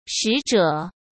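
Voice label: "使者", Mandarin Chinese; hsk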